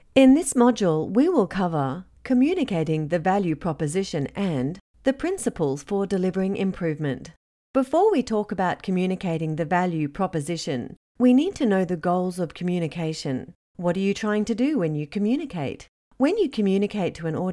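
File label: e-learning, English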